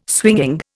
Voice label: "swinging", Indian